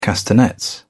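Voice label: "castanets", British English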